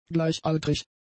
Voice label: "gleichaltrig", German; adjectives